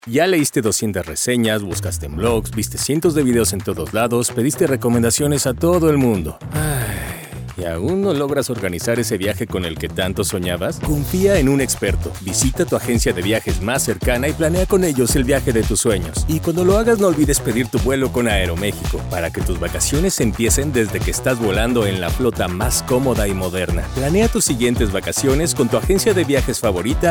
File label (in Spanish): Spot de Radio; Aeromexico